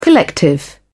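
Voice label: "collective", British English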